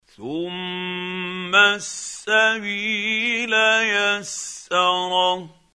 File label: quran, Hussary, tafseer; Abasa